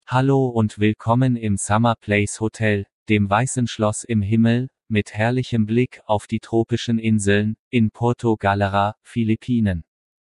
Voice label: german welcome

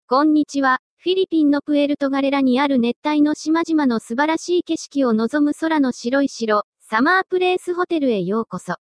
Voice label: Japanese welcome

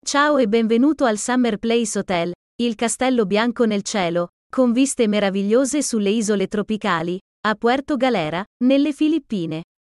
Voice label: Italian Welcome

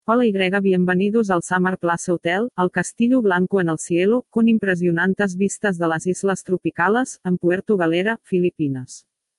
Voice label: Spanish welcome

